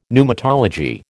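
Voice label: "pneumatology", American English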